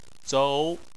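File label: "zou" second tone, chinese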